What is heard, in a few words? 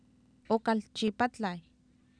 "ookalchipatlaaw", Oapan, female; Oapan minimal pairs 1998